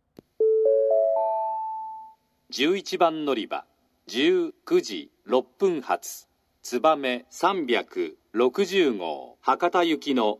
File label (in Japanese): 11番のりば案内放送, 新幹線ホーム; 新鳥栖; つばめ360号 博多行き; 博多で「のぞみ96号 名古屋行き」に接続